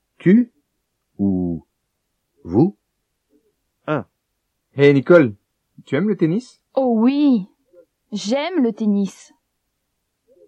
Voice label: French